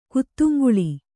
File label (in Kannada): "ಕುತ್ತುಂಗುಳಿ", kannada